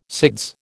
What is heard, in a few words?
"SIDS", American